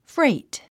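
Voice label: "freight", English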